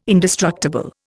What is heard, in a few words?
"indestructible", Indian